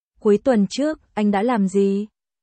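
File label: Vietnamese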